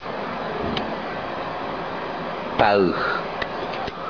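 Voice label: "pauh" canoe part; End piece; Fishing Lore of Tobi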